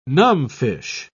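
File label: "numbfish", British English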